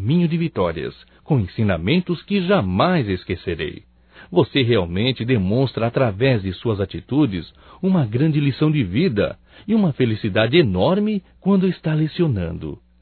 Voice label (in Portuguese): Aniversário Professor Masculino; Aniversarios; Telemensagens 2017